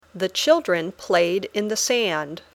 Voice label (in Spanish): Dictado